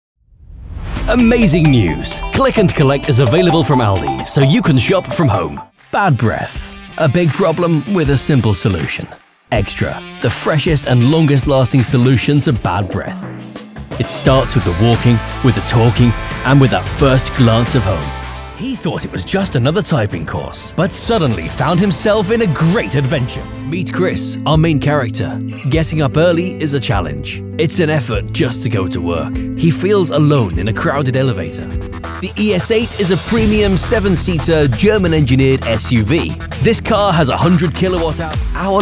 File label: English Voice Over